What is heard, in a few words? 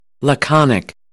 "laconic", American; ɑː - ɒ